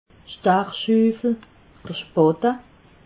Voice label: "stachschufel", alsace

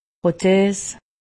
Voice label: "prothes", alsace; medecine

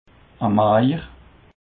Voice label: "maejer", Alsace

